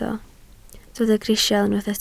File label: "crisial", Welsh